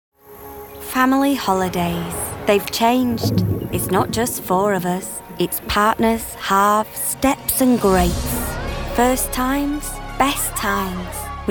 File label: commercial